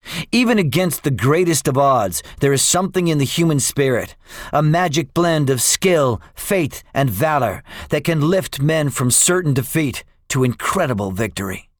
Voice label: debriefing, english; midway; War Thunder